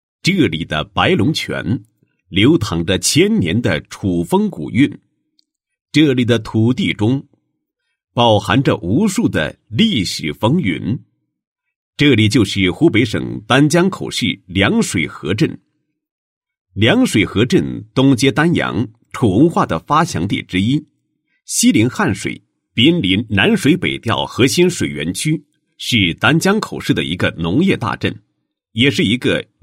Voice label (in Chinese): 男 学校专题; 凉水河镇中学教育教学信息化建设纪实